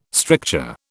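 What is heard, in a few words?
"stricture", British English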